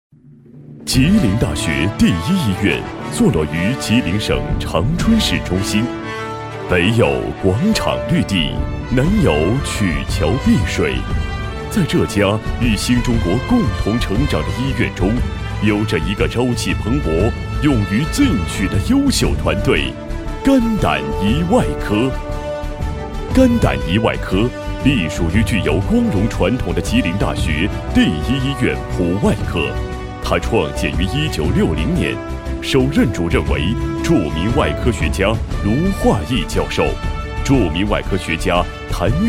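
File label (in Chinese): 男国大音-音乐; 吉林大学第一医院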